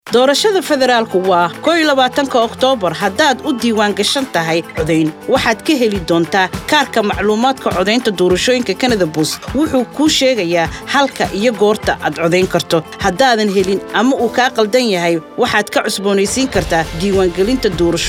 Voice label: Somali, radio advert; Voter information Card